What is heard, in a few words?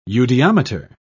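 "eudiometer", British English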